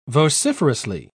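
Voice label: "vociferously", British English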